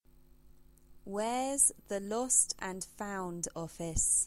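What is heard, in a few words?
English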